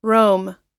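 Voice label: "rome", American English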